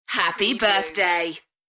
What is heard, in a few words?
UK female, "Happy birthday"; beanstalk audio